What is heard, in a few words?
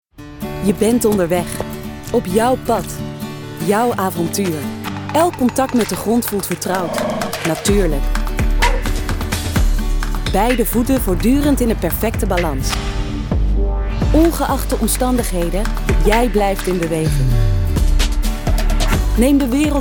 Commercial; Xsensible